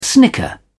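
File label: "snicker", British English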